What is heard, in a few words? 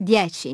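"ten", English; iOS 4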